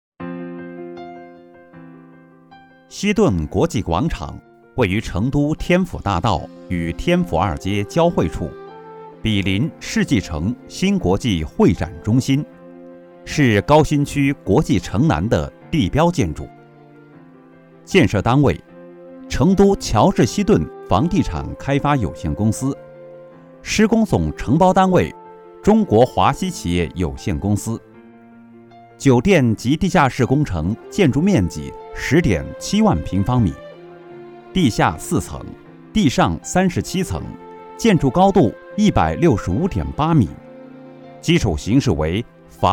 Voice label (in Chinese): 工程汇报类; 西顿国际